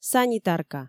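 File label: "санитарка", Komi, female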